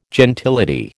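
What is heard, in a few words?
"gentility", American English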